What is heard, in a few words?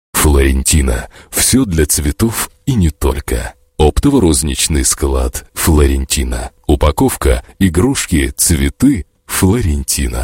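Russian, male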